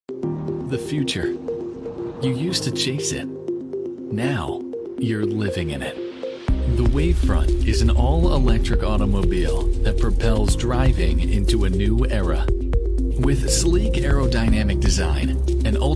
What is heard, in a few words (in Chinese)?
电车广告, 年轻磁性